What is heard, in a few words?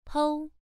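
"pou", tone 1, pronunciation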